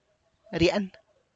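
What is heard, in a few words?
tlacochahuaya, Zapotec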